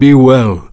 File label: Draenei Male Farewell